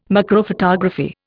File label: "macrophotography", Indian